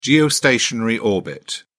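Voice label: "geostationary orbit", British English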